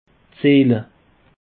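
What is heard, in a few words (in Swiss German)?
"ziele", Alsatian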